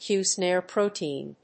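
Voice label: "Q-SNARE protein", English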